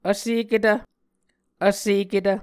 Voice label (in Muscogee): "vseketv", Muscogee